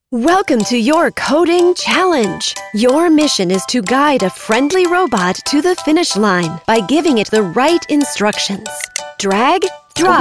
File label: NARRATION